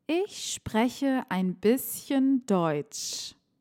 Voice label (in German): DaF Aussprache Ich Laut Übung ich spreche ein bisschen Deutsch